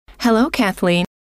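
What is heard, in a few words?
"hello", female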